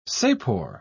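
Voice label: "sapor", British English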